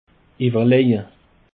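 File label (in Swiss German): "uewerleje", Alsatian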